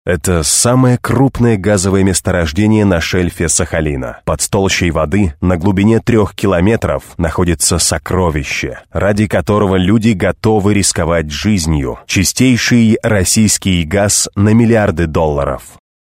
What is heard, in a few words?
rus